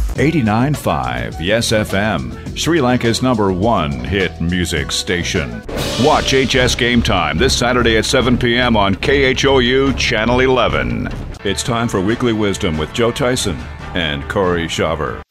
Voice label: Media Radio TV On Air